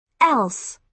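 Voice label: "else", British English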